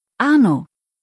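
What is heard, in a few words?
"Arno", German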